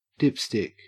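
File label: "dipstick", Australian English; pronunciations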